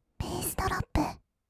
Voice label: べーすどろっぷ, kawaii voice; 音ゲー

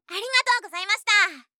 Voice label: 有難うございました, ASMR

